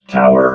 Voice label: "tower", robot

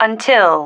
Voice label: "until", female

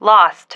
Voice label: "lost", female

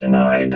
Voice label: denied, robot

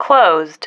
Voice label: "closed", female

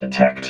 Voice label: "detect", robot vox

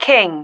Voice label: "king", female

